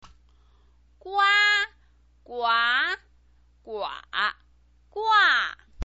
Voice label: "gua", chinese pinyin